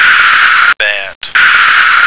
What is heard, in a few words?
"bat", American